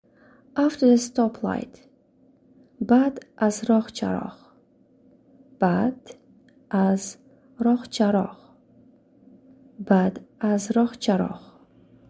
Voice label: After the stoplight, tajik